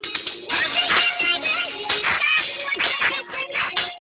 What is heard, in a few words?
8000hz; 4000ms